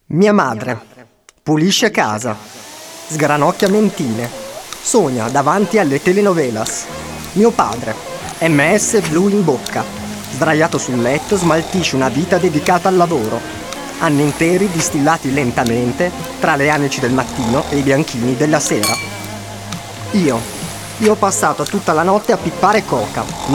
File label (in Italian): Radiodramma; maledette campane maledetta sesse radiodramma metropolitano